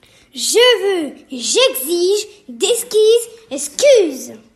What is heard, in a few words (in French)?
Virelangue